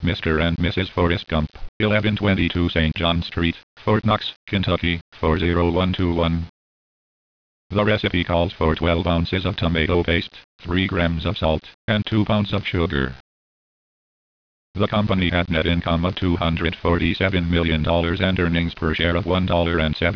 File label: DoubleTalk, synthesizers; RC Systems; Abbreviations; vintage-access